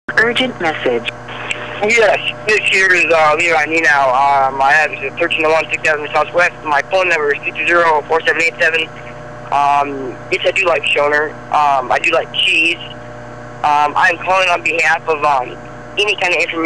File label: Voicemails